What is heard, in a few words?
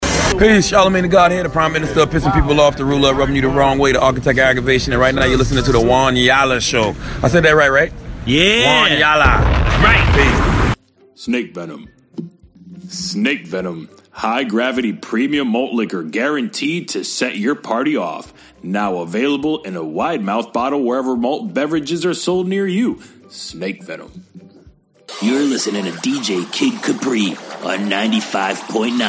voice over